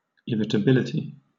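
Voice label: "irritability", British English; pronunciations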